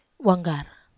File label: "wanggar", Wamesa